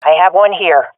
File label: sees one enemy, swat fem; Counter Strike Model Pack; CS:GO